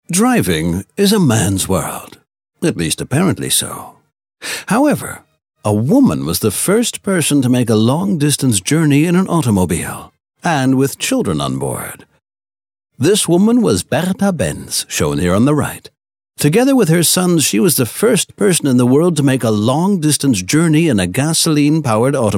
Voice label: Story Telling Commentator light informative